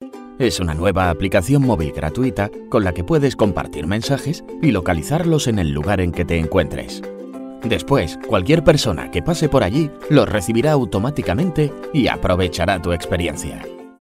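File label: European Spanish, corporate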